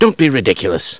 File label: "ridiculous", scientist